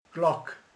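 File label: "harang", Hungarian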